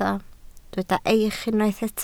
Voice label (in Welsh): "eich", Welsh